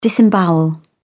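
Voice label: "disembowel", British English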